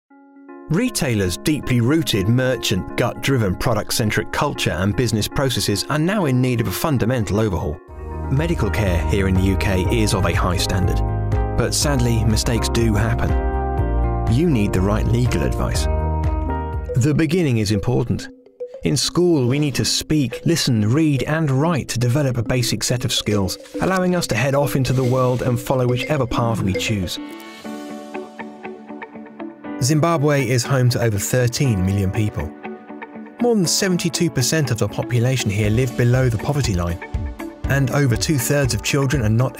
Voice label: english british narration

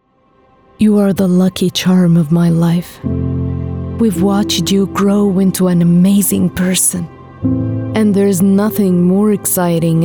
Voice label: english commercial

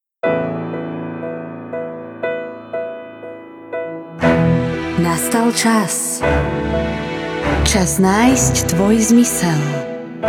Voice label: slovak commercial; Slovaquie

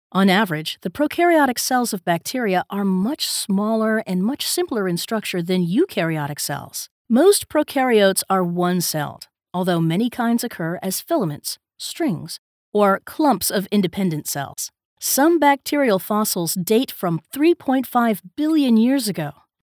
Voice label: english american e learning

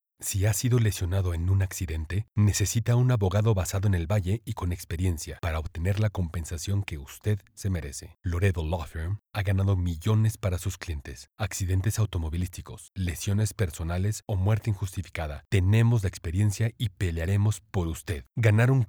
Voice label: spanish latin american corporate videos

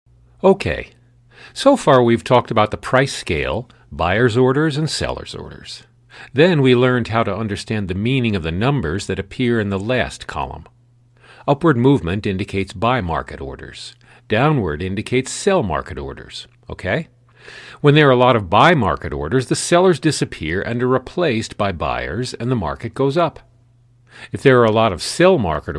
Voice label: english american training e-learning

